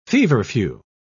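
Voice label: "feverfew", English, British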